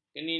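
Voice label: "kenini", Malay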